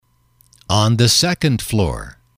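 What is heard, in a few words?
"on the second floor", English, male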